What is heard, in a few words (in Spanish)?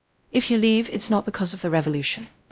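Voice Acting, es, female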